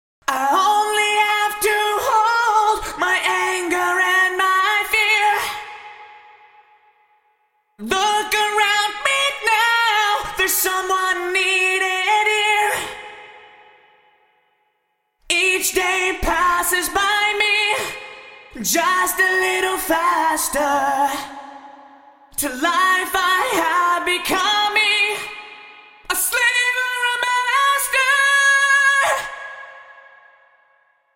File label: Eventide SP2016 Vox Room